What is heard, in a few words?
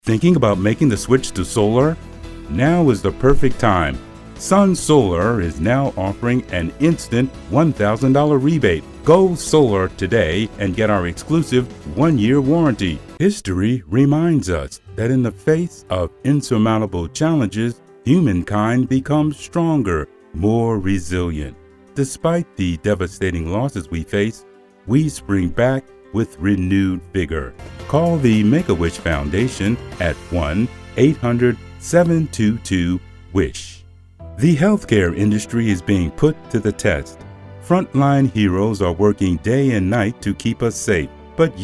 Commercial